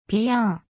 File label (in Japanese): "ぴゃ", 日本語; 基礎日語五十音聽力